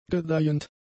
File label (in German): "gedeihend", German; adjectives